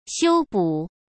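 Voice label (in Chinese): "修补", Mandarin Chinese; hsk; chinese-flashcards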